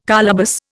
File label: "colobus", Indian